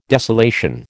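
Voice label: "desolation", American English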